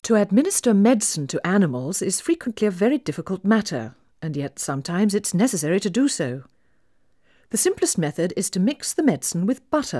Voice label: Female speech English Mono output; 3bit